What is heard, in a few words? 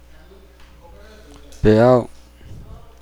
"wave the surf", Nukuoro; Nukuoro Talking Dictionary